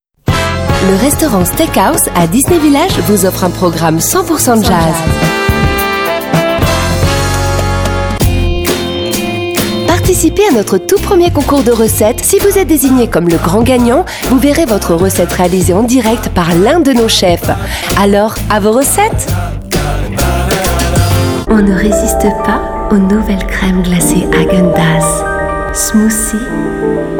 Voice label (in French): demo voix OFF